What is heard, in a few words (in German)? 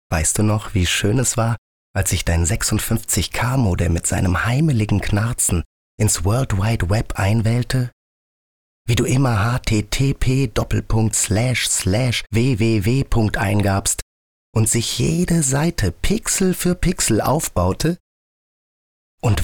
Mann